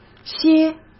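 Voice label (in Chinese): "些", Mandarin Chinese; chinese flashcards; hsk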